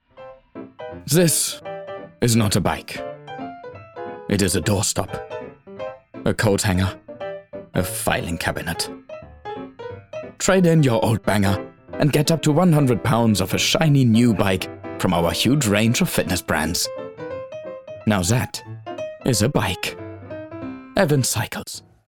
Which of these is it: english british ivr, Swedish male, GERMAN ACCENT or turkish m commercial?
GERMAN ACCENT